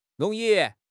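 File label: "rong2 yi4", Mandarin Chinese; HSK3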